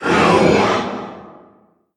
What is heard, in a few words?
vox pain